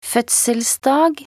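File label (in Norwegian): "fødselsdag", Norwegian; talkbook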